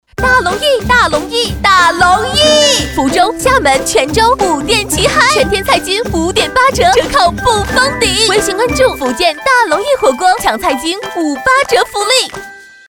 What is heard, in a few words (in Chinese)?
女 广告 火锅城广告